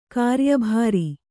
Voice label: "ಕಾರ್ಯಭರ", kannada

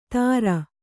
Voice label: "ತಾರ", Kannada

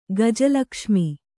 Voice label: "ಗಜಲಕ್ಷ್ಮಿ", Kannada

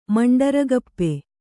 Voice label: "ಮಂಡರಗಪ್ಪೆ", Kannada

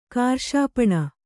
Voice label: "ಕಾರ್ಷಾಪಣ", Kannada

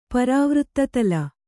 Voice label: "ಪರಾವೃತ್ತತಲ", Kannada

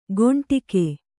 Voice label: "ಕೊಂಡಿಗೆ", Kannada

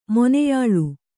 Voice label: "ಮೊನೆಯಾಳು", Kannada

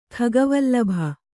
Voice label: "ಖಗವಲ್ಲಭ", Kannada

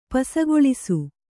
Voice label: "ಪಸಗೊಳಿಸು", Kannada

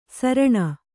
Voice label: "ಸೌರಣೆ", Kannada

